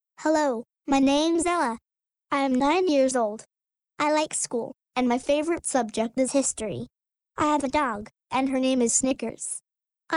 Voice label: Little Girl Ella